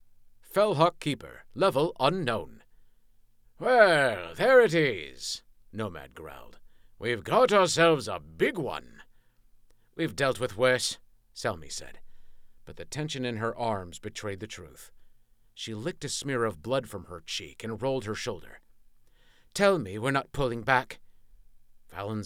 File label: LitRPG, system, undead male, female warrior